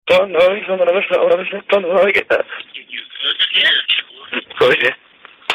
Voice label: BOY VOICE PHONE CALL; BOY'S PHONE CALL